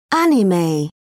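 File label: "anime", British English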